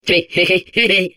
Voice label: Space core babble, es